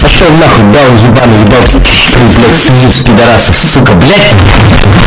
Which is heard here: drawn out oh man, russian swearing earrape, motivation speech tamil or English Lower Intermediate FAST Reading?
russian swearing earrape